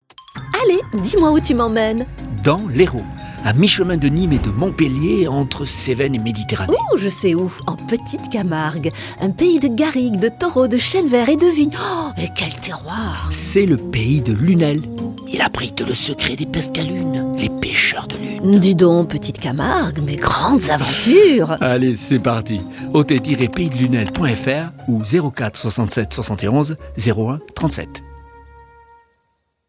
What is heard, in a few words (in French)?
spot radio; lunel; rfm lyon